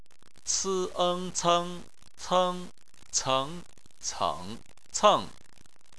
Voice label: "ceng", Chinese